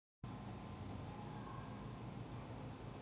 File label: voice message